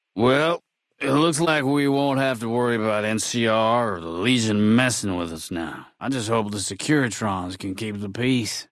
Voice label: male adult greeting; Fnv

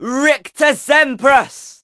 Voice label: Male Duel

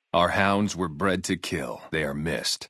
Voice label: male adult greeting; Fnv

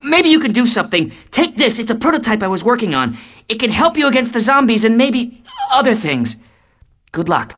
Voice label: Tree Scientist Dialogue; Timesplitters 3; Mansion of Madness

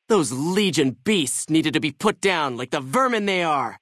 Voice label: male adult default greeting; Fnv

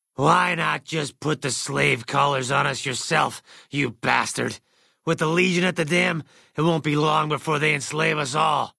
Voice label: male generic ghoul greeting; Fnv

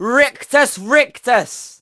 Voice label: Male Duel; HPCS PC; MW

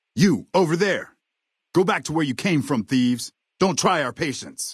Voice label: male adult leave water; FNV

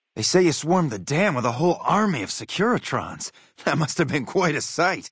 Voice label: male adult greeting; Fnv

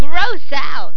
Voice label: Warrior Voice

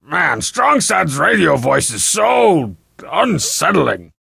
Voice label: Strong Sad Radio

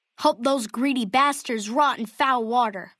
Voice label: male child greeting

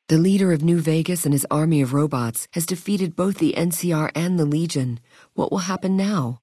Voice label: femaleadult greeting, dialogue; Fnv

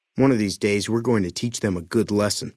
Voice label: male adult greeting

